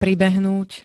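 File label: "pribehnúť", Slovak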